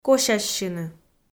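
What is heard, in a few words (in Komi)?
"косяссьыны", Komi-Zyrian, female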